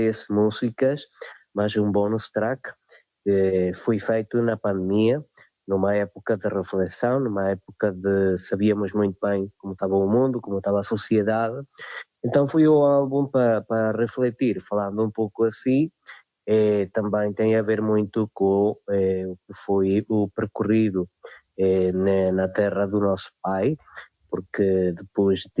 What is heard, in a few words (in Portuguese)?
Entrevista, saudade que atravessa fronteiras; emigração portuguesa